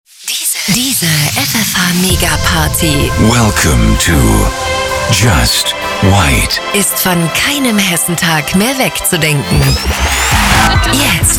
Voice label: Station Voice